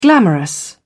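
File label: "glamorous", English, British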